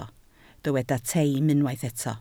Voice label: "teim", Welsh